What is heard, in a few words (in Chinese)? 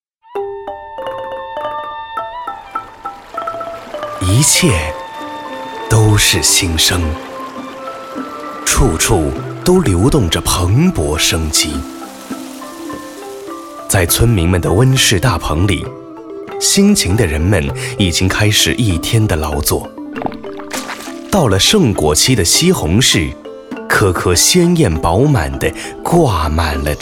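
男, 叙事专题, 普通话; 苏州县级改革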